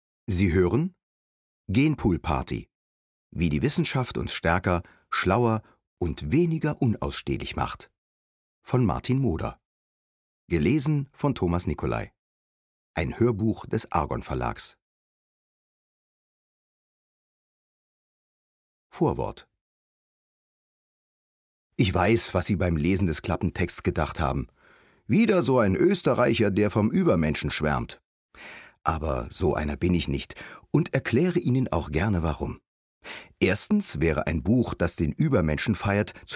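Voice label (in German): Sachbuch, Sprecher, Männer; Berlin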